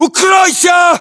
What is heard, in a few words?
order cover generic, ru; localized english